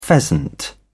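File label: "pheasant", British English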